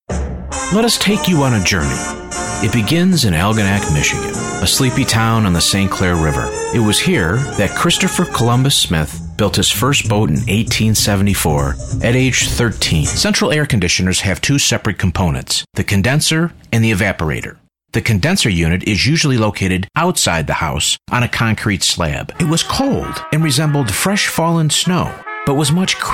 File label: Narration; Hobart